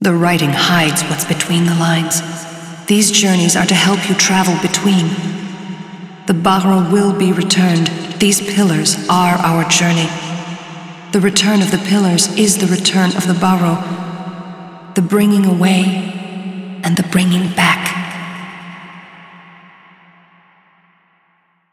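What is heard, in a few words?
Speech, English; Yeesha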